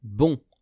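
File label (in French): "bon", French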